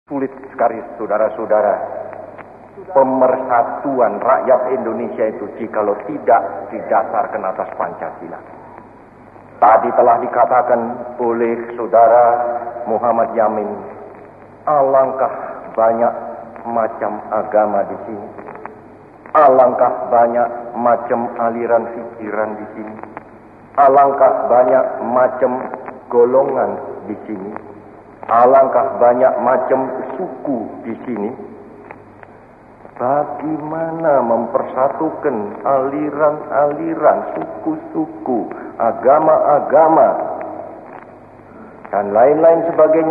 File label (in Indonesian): Pidato; Hari Pancasila 1950; soekarno